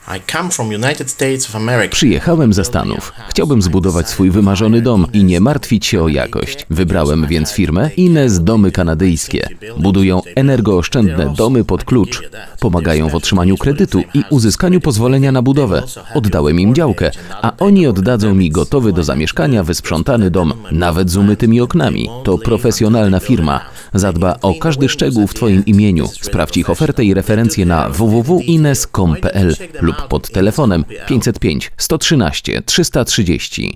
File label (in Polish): reklama, Radio; Radio Mazowsze